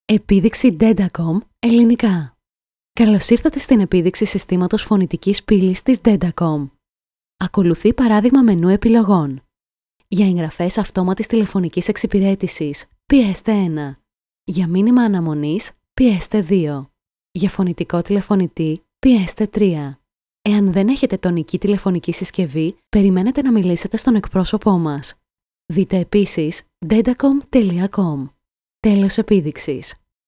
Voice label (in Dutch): Voice over Grieks vrouw